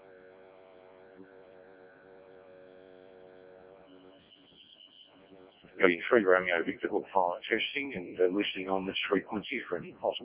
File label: decoded speech, parrot